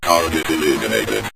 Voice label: target Eliminated, Synth Voice; Kenney's Sound Pack